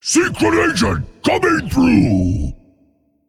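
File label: Male Drakonid Play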